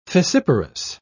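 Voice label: "fissiparous", British English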